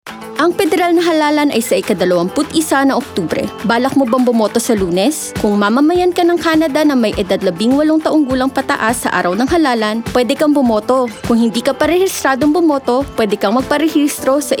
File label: election day Tagalog, radio advert